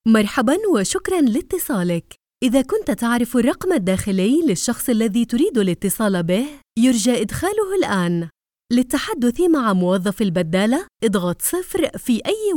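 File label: Arabic, female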